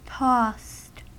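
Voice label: "tossed", US English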